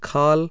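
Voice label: voiceless aspirated velar, hindi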